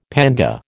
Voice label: "panga", American